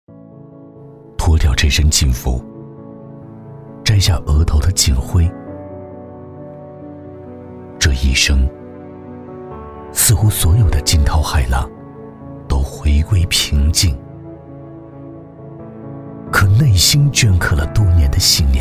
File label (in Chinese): 旁白 人物 自白